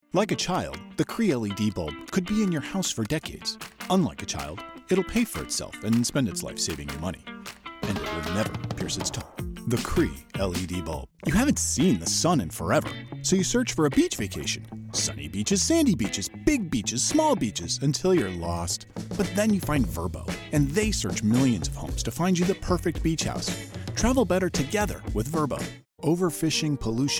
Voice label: Commercial